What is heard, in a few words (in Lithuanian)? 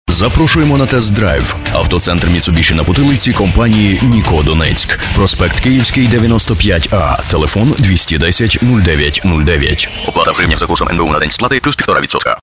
Ukrainieciu balsas, vyras